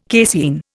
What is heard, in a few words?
"casein", Indian